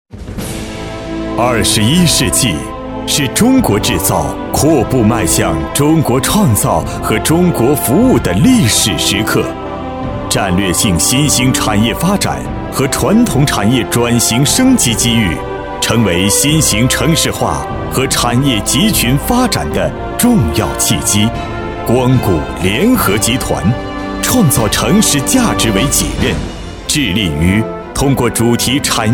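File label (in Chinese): 男国大音-企业宣传片; 光谷联合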